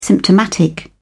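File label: "symptomatic", English, British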